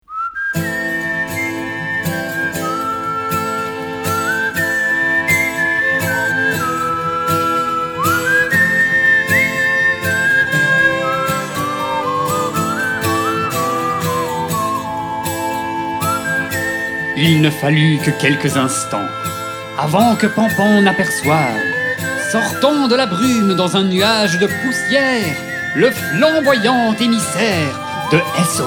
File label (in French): NARRATION